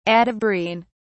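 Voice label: "atabrine", British English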